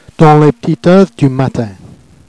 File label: "dans les p'tites heuthes du matin", Jèrriais